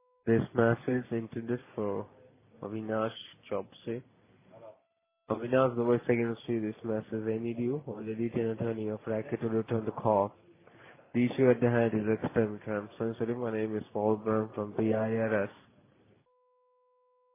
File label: voicemail irs scam; from irs